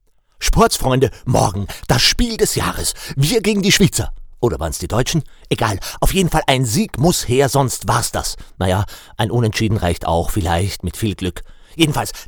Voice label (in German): Werbung